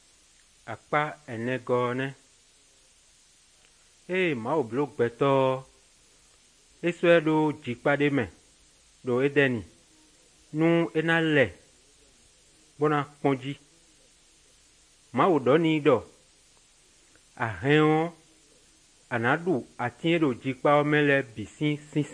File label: good news, fon fongbe bible drama